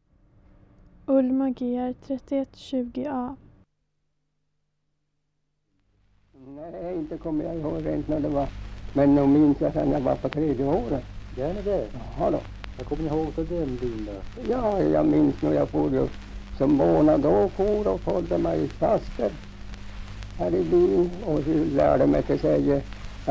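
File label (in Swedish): Ragunda Jämtland, Svenska sagor, dialekter; Folkminnen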